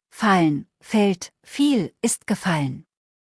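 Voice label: "fallen", German; verben kurzliste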